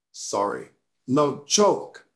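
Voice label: Voice Acting, Spanish, male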